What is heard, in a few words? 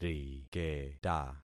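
"riketa", concatenated syllables; Affixing experiment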